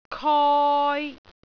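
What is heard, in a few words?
"koi3", Cantonese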